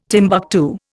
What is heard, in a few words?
"Timbuctoo", Indian